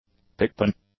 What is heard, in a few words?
"pigpen", English